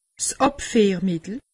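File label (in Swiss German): "abfiermittel", Alsatian; medecine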